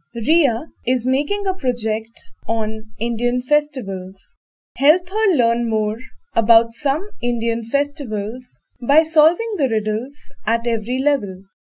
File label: dialog; Elevate LMS; EVS; SolveThis